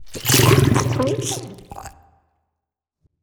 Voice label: portrait synthetic; Stellaris; machineage